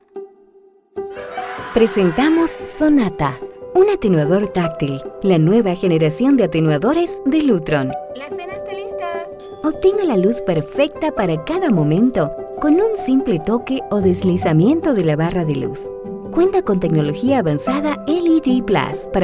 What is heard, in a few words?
Web Commercial product presentation Neutral LatAm Spanish